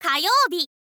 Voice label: "kayoubi", girl; voice

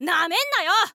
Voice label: thief boy attack

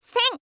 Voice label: "sen", info girl voice

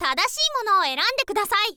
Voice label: "tadashiimonowo", girl; voice; info girl

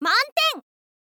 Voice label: "manten", info girl voice

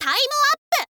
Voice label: "time up", girl; voice; info girl

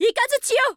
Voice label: witch special

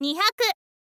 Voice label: "nihyaku", info girl voice

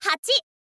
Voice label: "hachi", info girl voice

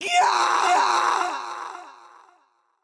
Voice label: necromancer oldwoman death, voice

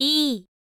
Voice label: info lady "e"

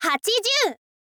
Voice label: "hachizyuu", girl; voice

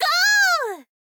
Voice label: "go", girl; voice

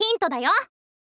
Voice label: "hint dayo", info girl voice

